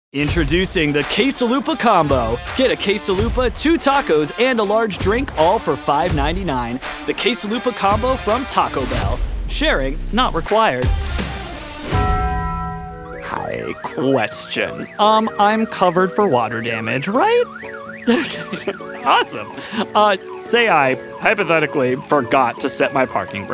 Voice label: Male Commercial